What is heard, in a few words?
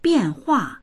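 "bian4hua4", Chinese; biànhuà; 变化; 変化する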